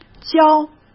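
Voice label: "交", Mandarin Chinese; hsk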